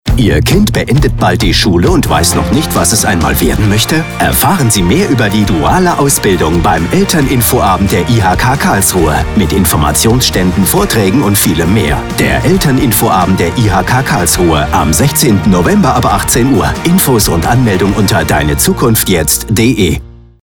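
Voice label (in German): Spot Musik, Radiospot Beispiele Bildung & Weiterbildung; IHK Karlsruhe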